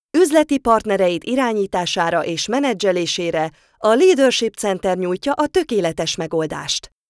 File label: imagefilm hun